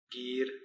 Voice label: "giir", Palula